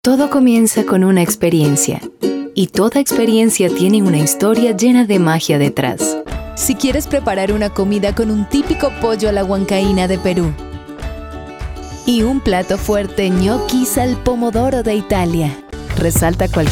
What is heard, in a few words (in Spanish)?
comercial